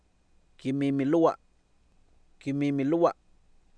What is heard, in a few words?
"kimiimilowa", Oapan Nahuatl, male; Oapan minimal pairs 2000